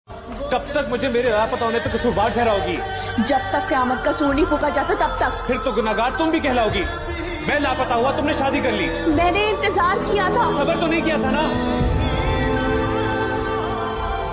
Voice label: Sad Dialogue Ringtone